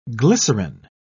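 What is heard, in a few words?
"glycerin", English, British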